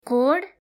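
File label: "angle", Nepali; grade3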